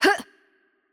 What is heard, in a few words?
VO Attack Light, it IT; bloom minigame